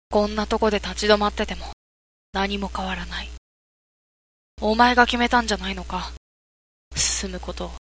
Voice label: boy